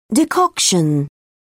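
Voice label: "decoction", British English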